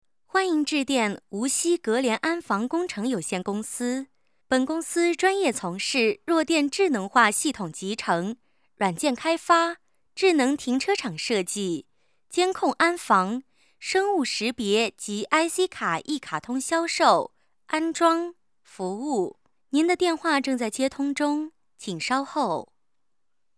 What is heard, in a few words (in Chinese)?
女 彩铃; 无锡安防公司